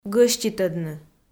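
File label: "гӧститӧдны", Komi, female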